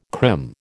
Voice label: "crim", American